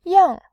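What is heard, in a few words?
"yang", Mandarin Chinese, tone 4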